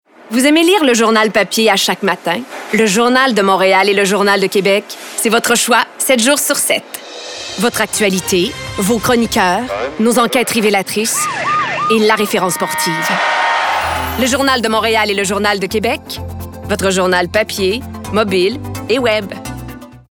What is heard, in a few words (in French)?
Publicité; Journal de Montréal et de Québec